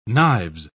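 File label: "knives", English, British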